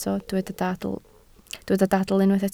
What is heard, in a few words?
"dadl", Welsh